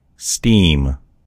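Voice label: "steam", American English; gcompris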